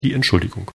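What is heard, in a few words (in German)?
"entschuldigung", German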